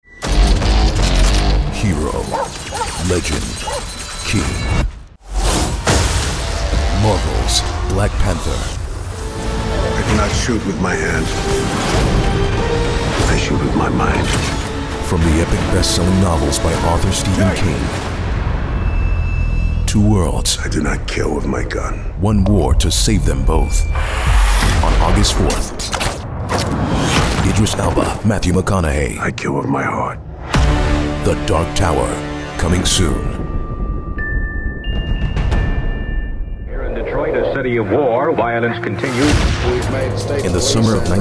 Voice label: trailer male